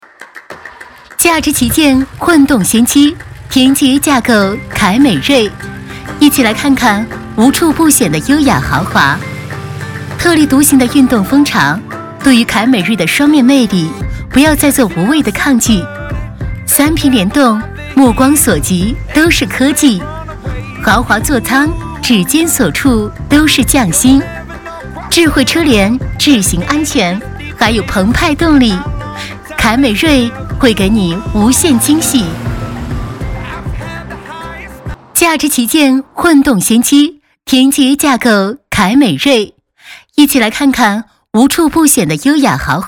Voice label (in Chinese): 女 产品讲述; 丰田